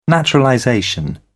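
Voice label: "naturalization", British English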